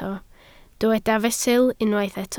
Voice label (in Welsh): "fesul", Welsh